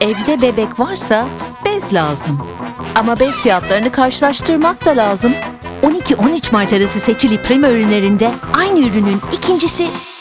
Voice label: turkish commercial; voix off bilingue anglais/turc

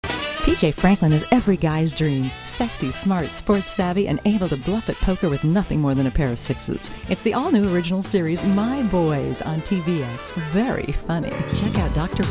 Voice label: english american movie trailers

